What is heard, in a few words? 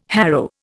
"harrow", Indian